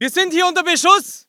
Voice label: voice ger infantry

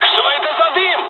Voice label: dialogs russian; War Thunder

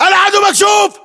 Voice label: threat infantry exposed, battle chatter, english

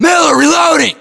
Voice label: inform reloading, US

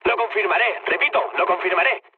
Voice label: player killed enemy, mood med, speech; War Thunder

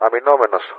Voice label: engaged defensive; Wingman

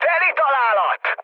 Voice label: bomb success, mood med, speech hun; War Thunder

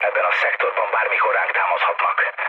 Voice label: hun chat, speech hun; War Thunder

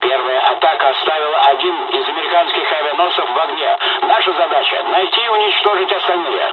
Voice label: dialog, dialogs russian; War Thunder

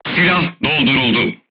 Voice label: voice message charged, crew tanks turkish

